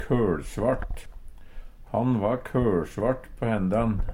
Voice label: kolsvart, Numedalsmål; Adjektiv

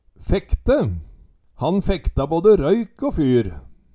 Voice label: fekte, Numedalsmål

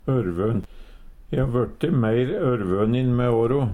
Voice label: "ørvøn", Numedalsmål; ørvønin/ørvønt; kvier seg; E har vørte meir ørvønin me åro.; Adverb

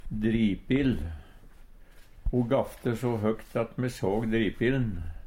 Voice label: "dripil", Numedalsmål

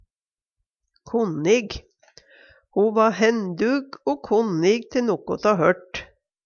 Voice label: konnig, Numedalsmål; Adjektiv

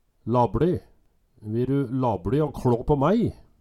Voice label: "labLi", Numedalsmål

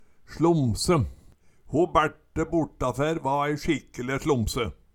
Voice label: "slomse", Numedalsmål

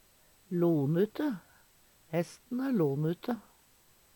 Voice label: lonute, Numedalsmål; Veggli; Adverb

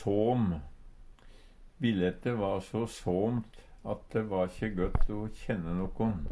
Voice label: såm, Numedalsmål; Adjektiv

